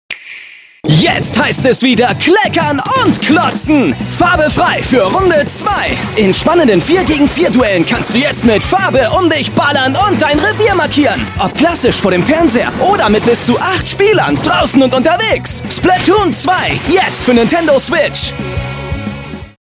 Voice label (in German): Sprachprobe TVspot; Nintendo Splatoon